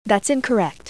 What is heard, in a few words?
thats incorrect woman